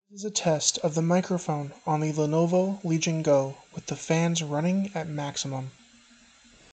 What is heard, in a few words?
Mic Test, Legion Go; lenovo legion go